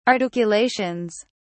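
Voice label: "articulations", English, British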